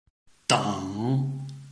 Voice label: "deng3", Chinese

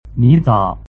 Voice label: "nizao", Chinese

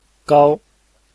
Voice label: "gao1", Chinese

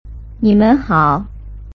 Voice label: "nimenhao", Chinese

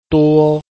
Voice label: "duo1", Chinese

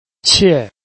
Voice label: "qie4", Chinese

